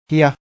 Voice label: "hiya", lakota